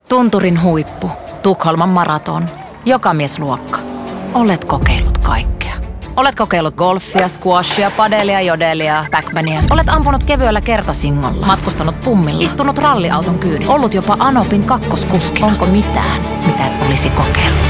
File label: radio; veikkaus; ravit; tunturin huippu